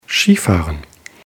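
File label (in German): "skifahren", German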